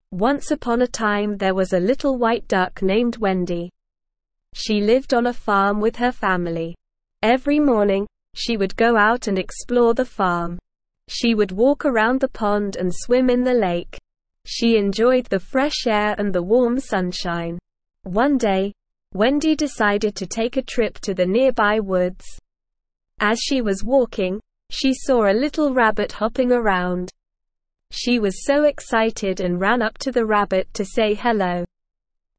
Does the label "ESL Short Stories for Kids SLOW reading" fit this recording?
Yes